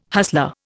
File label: "hustler", Indian